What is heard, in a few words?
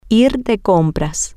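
shopping, Spanish, female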